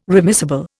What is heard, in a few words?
"remissible", Indian